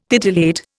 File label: "titillate", Indian